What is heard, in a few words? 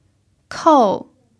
"kou4", chinese pinyin